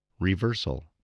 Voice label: "reversal", English